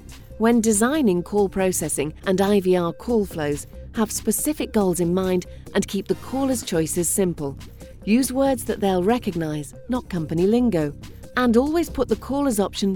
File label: phone system; phone system demo